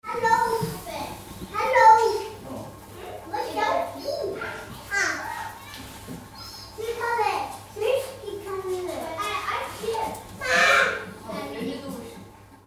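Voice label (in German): Zoo Reykjavik Kind Islaendisch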